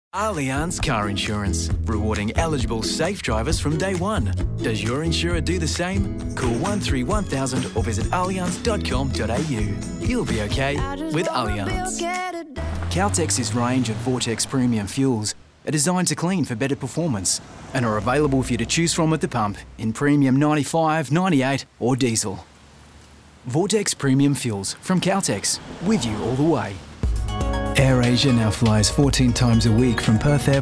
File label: International Australian